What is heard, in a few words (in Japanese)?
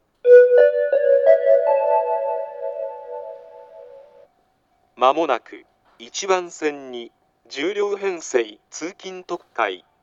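接近放送, 旭型放送(男声), 青梅線; 通勤特快東京行; 平日限定の通勤特快です。; 牛浜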